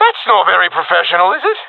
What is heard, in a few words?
takingfire friendly, professional epic, agents; Source Model Packs; Counter Strike Model Pack; CS2